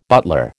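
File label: "butler", American English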